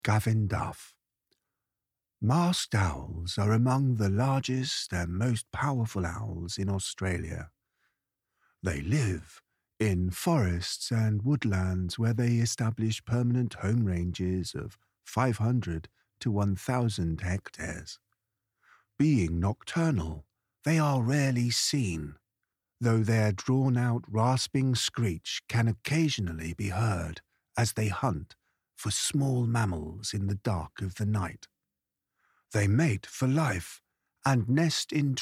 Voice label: english british impersonations, voice actors; classically trained actor and voice artist